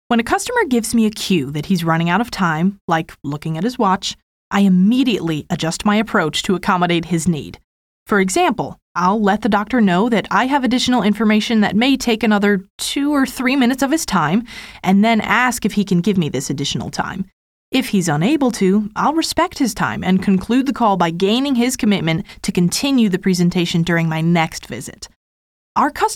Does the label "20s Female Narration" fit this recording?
Yes